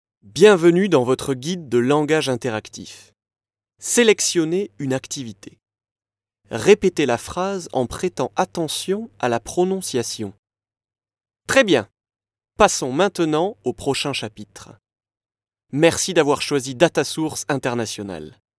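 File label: French male corporate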